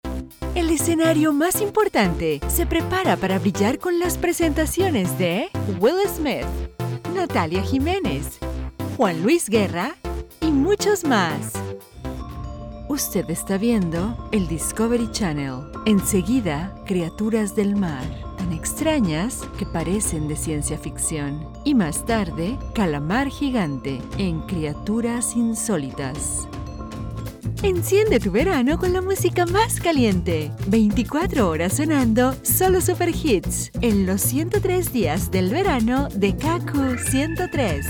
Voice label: Promo Spanish, Spanish Female Voice Over